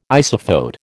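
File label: "isophote", American English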